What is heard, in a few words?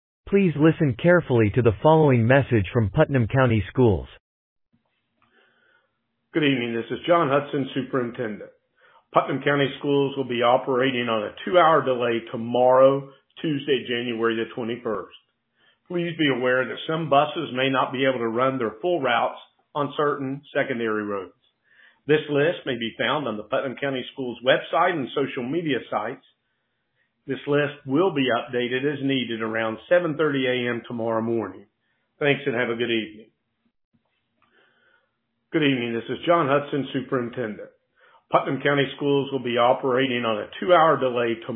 Voicemail